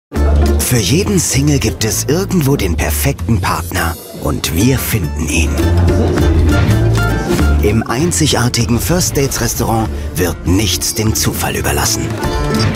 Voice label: sprachprobe kommentar factual; first dates

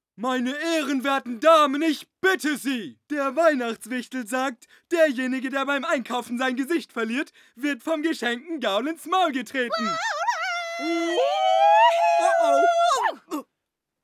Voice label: zeichentrick; chopsockey

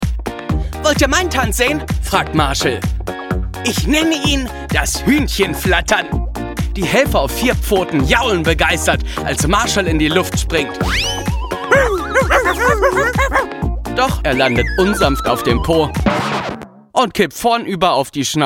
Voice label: Erzähler; Paw Patrol; Hunde Charge

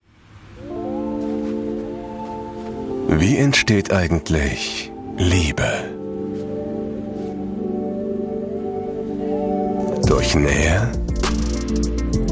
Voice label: Werbe Spot, Commercial (Werbung), German; Parfum; Parfum - Demo-Werbespot

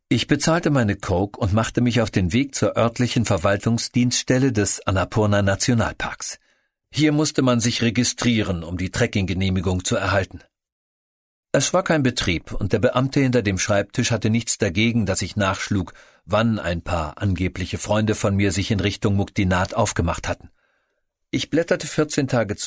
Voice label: hoerbuch; toedlicher pfad

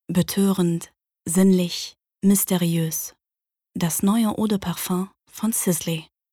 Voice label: Werbung

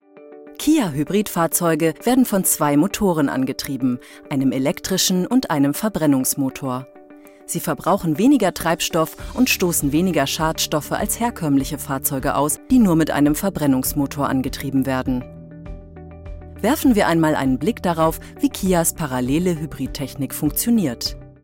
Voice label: Erklärfilm

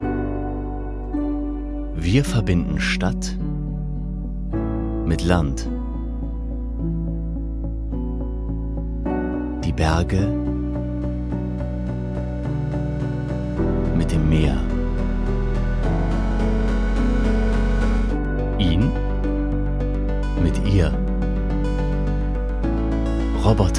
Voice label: Imagefilm deutsch

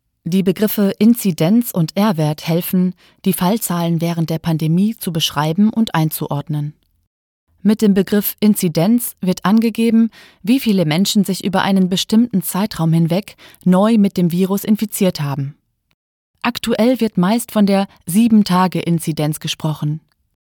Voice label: Erklärfilm, Off, Tutorial, German; Corona

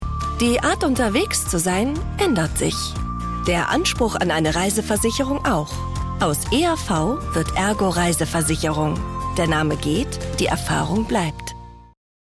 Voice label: Spot; Ergo

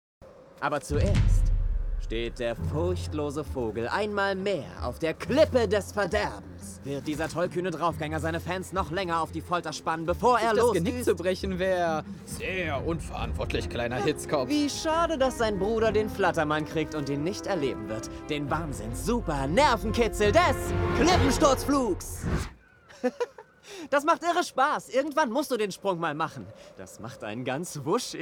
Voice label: Rolle Dubbing; Ueberflieger; Richard; DubbingPlus

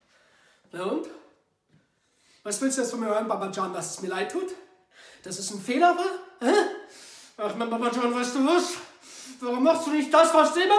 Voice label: Emotional

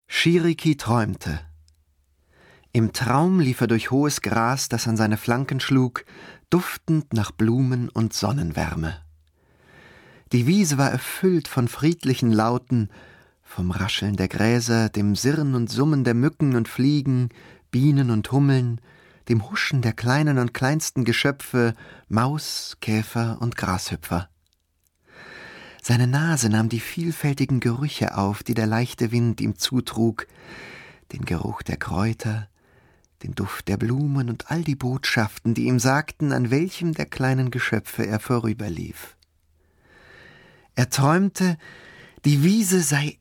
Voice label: erzaehlung

